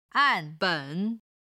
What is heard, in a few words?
"an4 ben3", Chinese